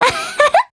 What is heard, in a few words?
MOOD JP